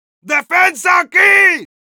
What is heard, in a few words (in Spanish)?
Heavy help me defend, es